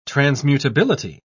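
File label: "transmutability", British English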